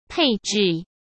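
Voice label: "配置", Mandarin Chinese; hsk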